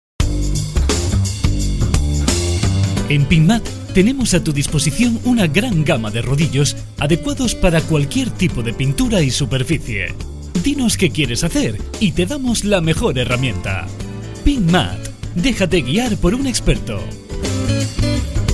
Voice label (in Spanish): PROMO RODILLOS ESPANOL; pinturas andalucia